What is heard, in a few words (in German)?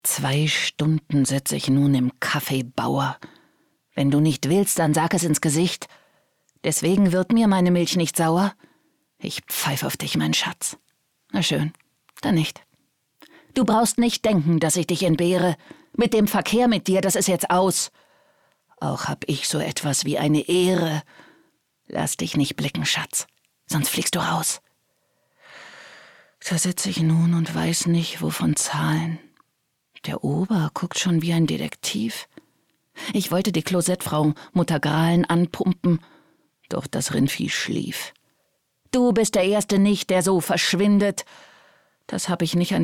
synchron